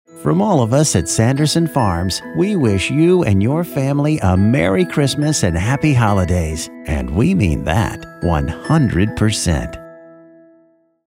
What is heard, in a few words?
Announcer Sincere